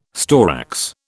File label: "storax", British